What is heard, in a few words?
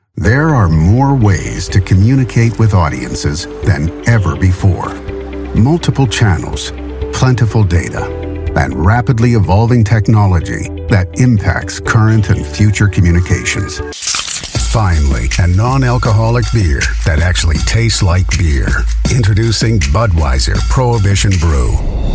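English US Commercial; GoLocalise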